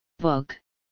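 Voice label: "Book", English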